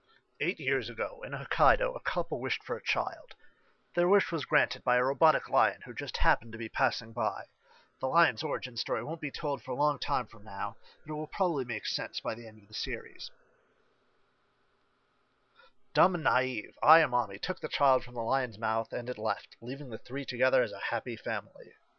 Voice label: Narrator